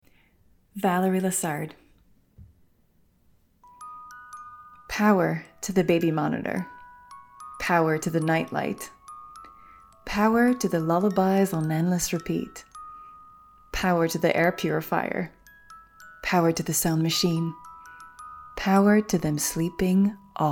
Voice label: English VO